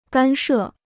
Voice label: "干涉", Chinese

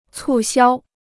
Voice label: "促销", Chinese; cù xiāo; to promote sales

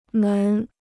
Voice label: "门", Chinese

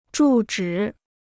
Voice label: "住址", Chinese

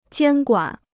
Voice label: "监管", Chinese

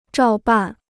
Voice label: "照办", Chinese

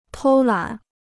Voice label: "偷懒", Chinese

